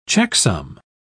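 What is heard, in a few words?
"checksum", British English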